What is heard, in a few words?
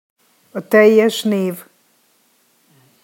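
"a teljes nev", Hungarian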